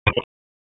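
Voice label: Police Northwest District Tactical 1, p25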